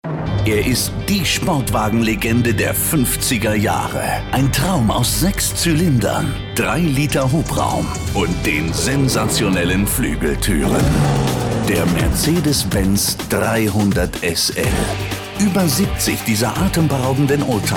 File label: TV Commercials, German voice-over, calming voice; Dynamic and Engaged Mercedes Benz